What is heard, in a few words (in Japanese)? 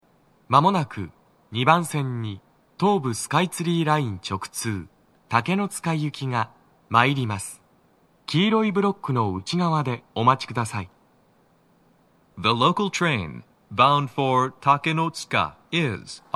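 茅場町駅, 2番線 接近放送, 男声, TOA天井型での収録です; 放送は「竹ノ塚行」です。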